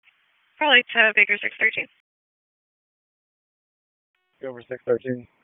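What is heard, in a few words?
Police Southwest District Dispatch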